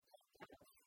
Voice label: "керкаыс", Komi

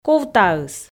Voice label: "ковтаыс", Komi

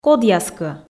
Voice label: "кодъяскӧ", Komi

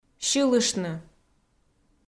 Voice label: "сьылыштны", Komi

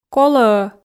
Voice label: "колӧ-ӧ", Komi

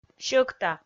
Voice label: "сьӧкта", Komi

